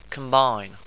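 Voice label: "combine", English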